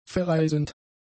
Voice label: "verreisend", german; adjectives

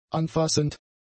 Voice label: "anfassend", German; adjectives

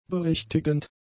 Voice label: "berichtigend", German; adjectives

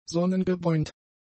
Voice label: "sonnengebräunt", german; adjectives

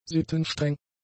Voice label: "sittenstreng", german; adjectives

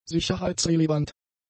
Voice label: "sicherheitsrelevant", German; adjectives

